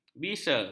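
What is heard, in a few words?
"bisa", Malay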